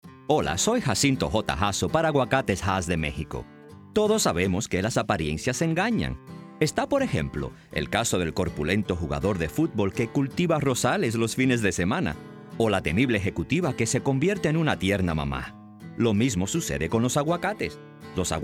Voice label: Avocados Spanish